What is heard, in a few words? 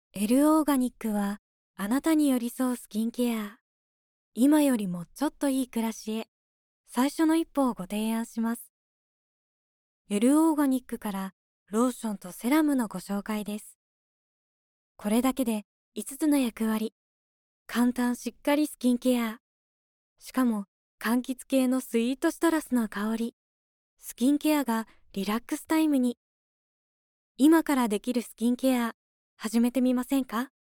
female